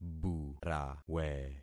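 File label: "burawe", concatenated syllables; Affixing experiment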